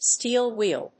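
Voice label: "steel wheel", English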